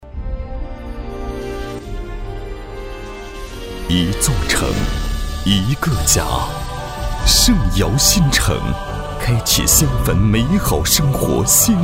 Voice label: 男 样音, 国语男声配音; 圣尧新城

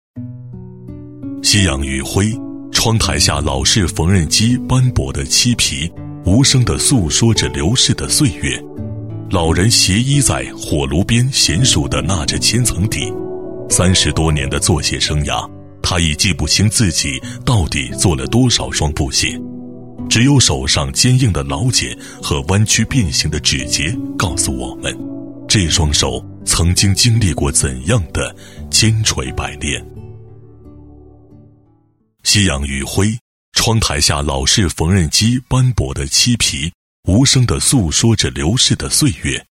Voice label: 记录片 补鞋老人, 国语男声

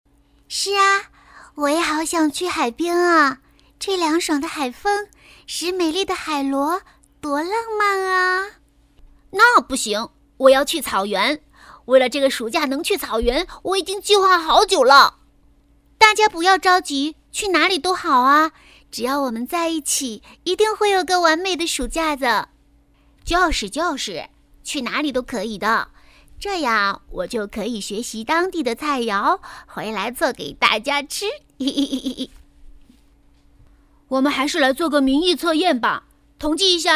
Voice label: 女 童声 动画片配音

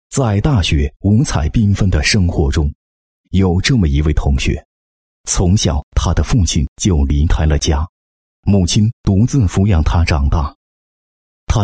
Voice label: 男 人物 大学同学基本情况介绍

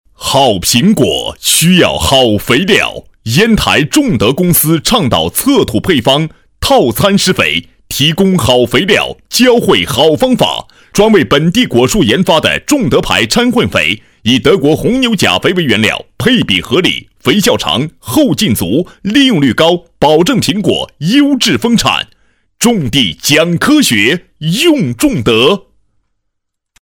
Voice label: 男7 大气广告 肥料, 国语男声配音

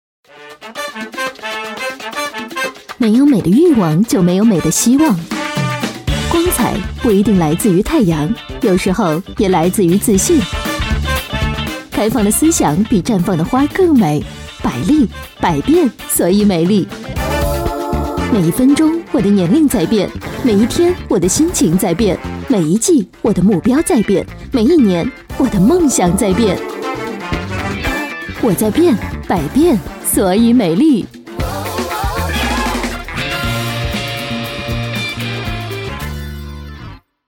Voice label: 女 妩媚时尚广告

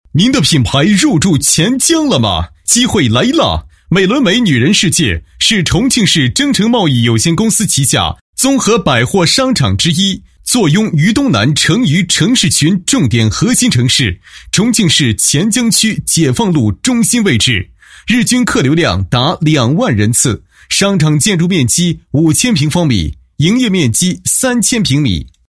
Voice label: 男 宣传广告, 贸易有限公司宣传介绍; 重庆市征程

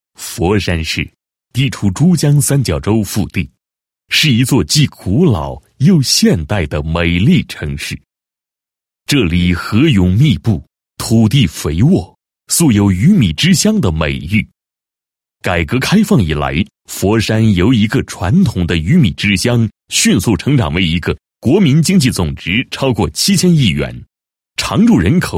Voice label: 男 专题 农业科学研究所, 国语男声配音; 大气专题

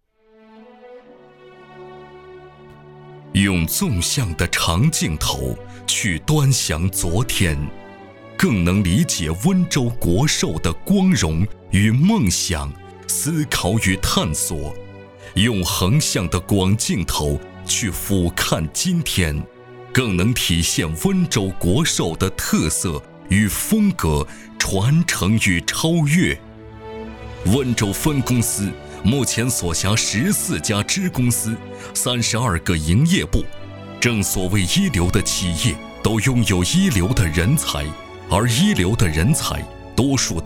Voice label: 男 专题; 温州

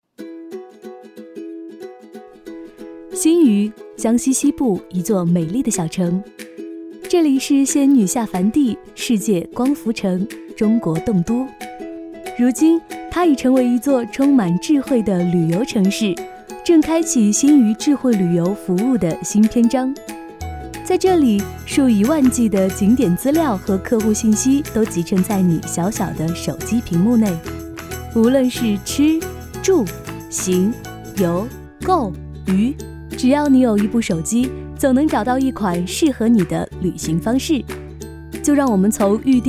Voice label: 女, 专题, 时尚 轻松, 国语女声; 新余旅游护照

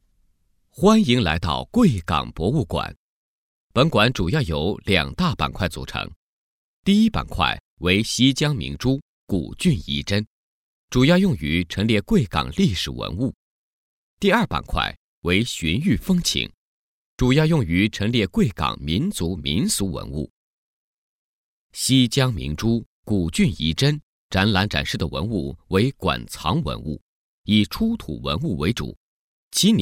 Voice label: 男 专题, 国语男声配音; 贵港博物馆

